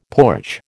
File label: "porch", American